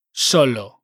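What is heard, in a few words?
"solo", Spanish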